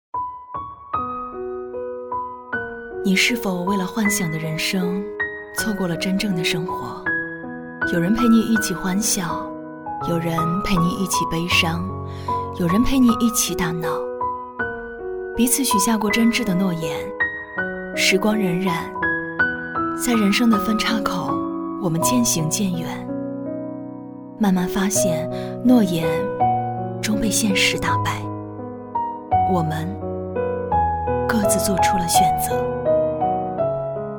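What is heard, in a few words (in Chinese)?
短视频深情回忆; 抖音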